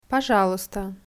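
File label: "pozhalusta", Russian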